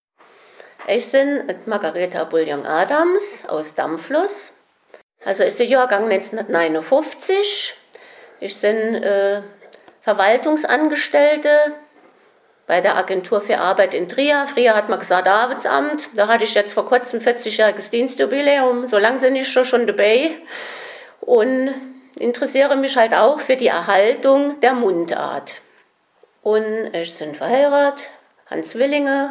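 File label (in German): stellt sich vor, Hunsrück; Damflos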